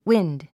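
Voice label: "wind", English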